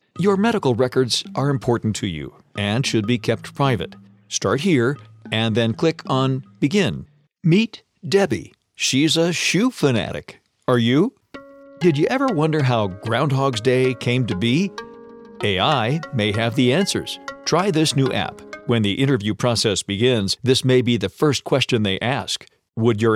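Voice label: American English, Explainer; voice demos; GoLocalise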